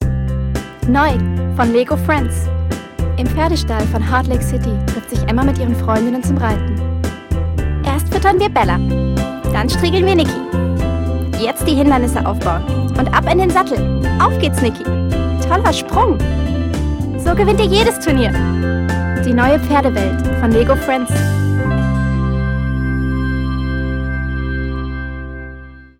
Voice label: commercial de; Muttersprache Deutsch; lego 2015; Sprecher in London, Köln